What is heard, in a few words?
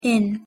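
"in", English pronunciation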